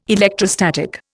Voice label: "electrostatic", Indian